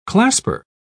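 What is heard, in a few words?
"clasper", British English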